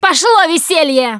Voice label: incoming, russian, Survivor Voice Producer